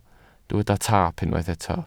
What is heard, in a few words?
"taap", Welsh